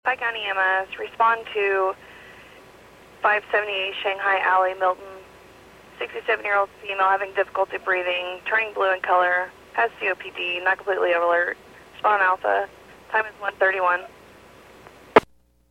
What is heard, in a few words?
PAGING